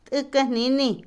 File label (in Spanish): pueblo, Otomí, mujer; INALI